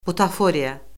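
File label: "butaforiya", Azerbaijani; Orfoqrafiya Lüğəti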